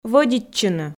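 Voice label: "вӧдитчыны", Komi, female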